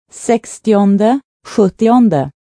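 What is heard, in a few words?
"sextionde sjuttionde", Swedish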